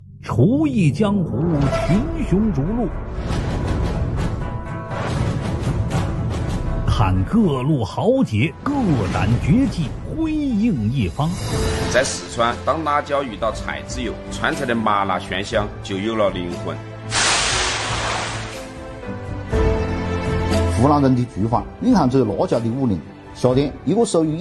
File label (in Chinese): 男 宣传片 美食; 央视美食